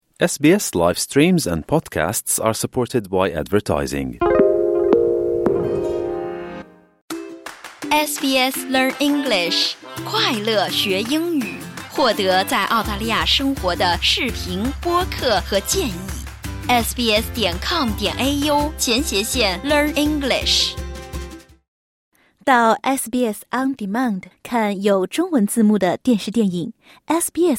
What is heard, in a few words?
mandarin; xian hua ao zhou